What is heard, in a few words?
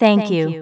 "thank you" female; computers